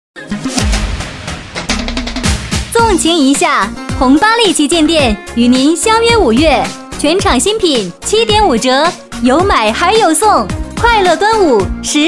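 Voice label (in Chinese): 女 促销 端午节旗舰店; 红芭莉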